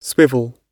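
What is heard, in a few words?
"swivel", British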